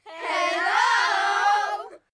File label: loud hello